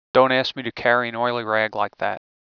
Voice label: speech M